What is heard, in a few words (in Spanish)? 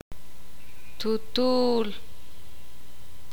"vientre", ixil